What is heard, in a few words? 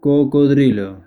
Crocodile spanish